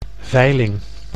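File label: "veiling", Dutch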